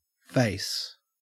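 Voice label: "face", Australian English; pronunciations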